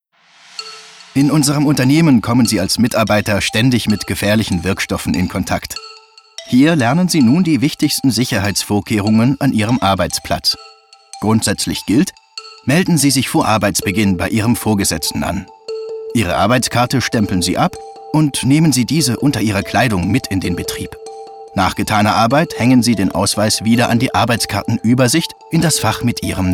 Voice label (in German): DEUTSCH MALE E-Learning; Chemieunternehmen; Sicherheit im Unternehmen; LOFT VOICES